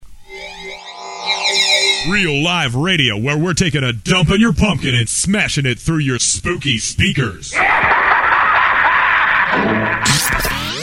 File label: Commercial Break trailer; Revendettagengence